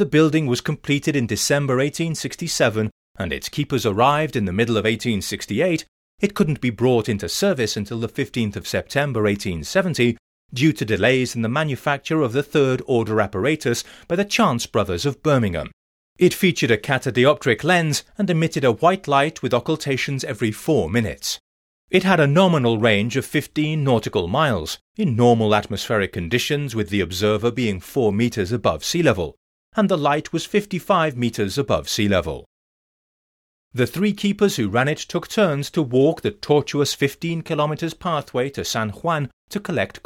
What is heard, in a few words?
lighthouse audioguide; punta grosa